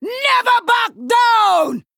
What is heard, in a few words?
Female Troll Attack